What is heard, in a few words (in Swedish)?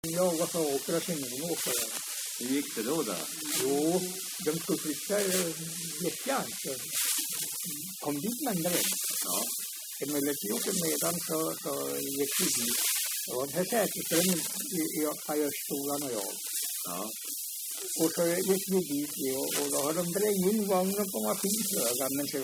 dialekter; Folkminnen